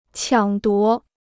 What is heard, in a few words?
"抢夺", Chinese